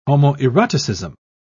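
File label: "homoeroticism", British English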